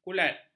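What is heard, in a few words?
"kulat", Malay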